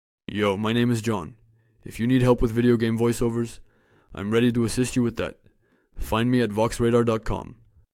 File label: american professional voiceover; american professional voiceover artist